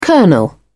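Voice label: "colonel", British English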